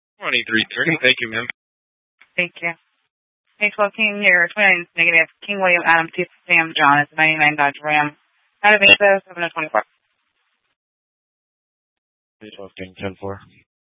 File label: Sheriffs Office, scanner